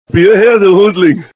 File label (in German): bayrische klingeltoene bier her du hundling